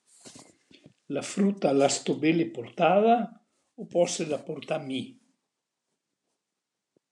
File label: Fodom (Colle Santa Lucia, Province of Belluno); La fruta l’asto bele portada o posse la portà mi?; AlpiLinK